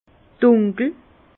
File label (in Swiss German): "dunkel", Bas Rhin; vin; sombre